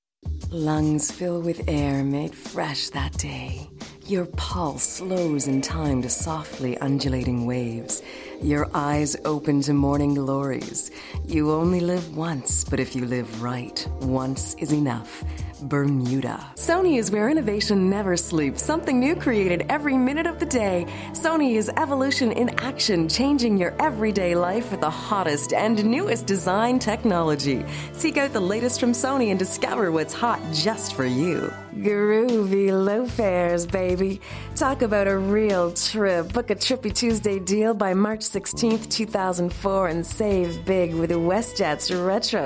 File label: Eng commercial